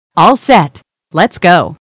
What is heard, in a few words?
Start Drive, eng; waze